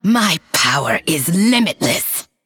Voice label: Female Undead Threaten